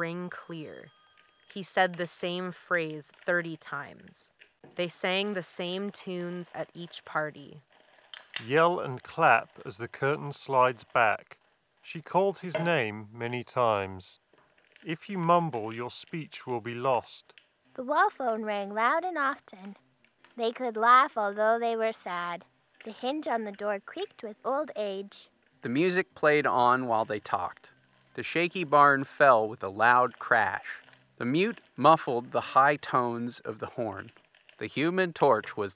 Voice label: Astro A20 wireless G2 Office microphone sample; Astro A20 Gen 2